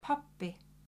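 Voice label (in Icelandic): "pabbi", Icelandic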